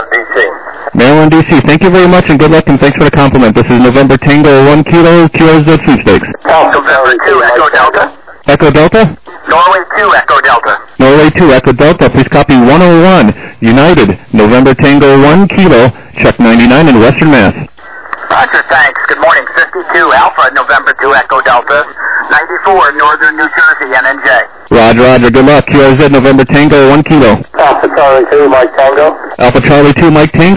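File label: lower sideband 7MHz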